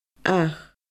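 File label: "ath", Scottish Gaelic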